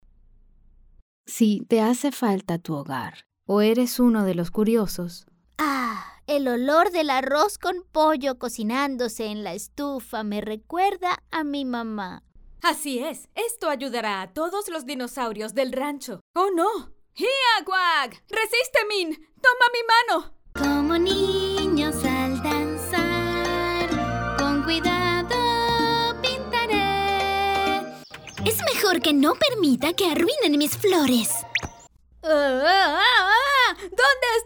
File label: Characters Dubbing Animation